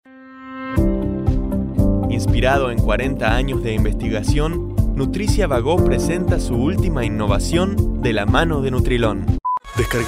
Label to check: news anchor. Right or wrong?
Wrong